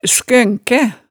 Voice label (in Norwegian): "skønkæ", hemsedalsmaalet